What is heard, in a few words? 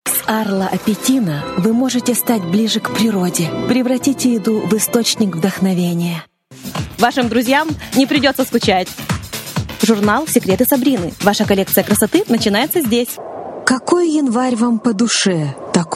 Russian